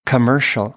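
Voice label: "commercial", American English; AmericanBritish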